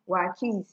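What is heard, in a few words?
"wakis", Nigerian Pidgin, female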